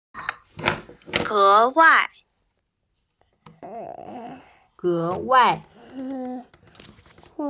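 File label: "ge2wai4", Mandarin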